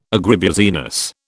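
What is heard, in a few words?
"agribusiness", British English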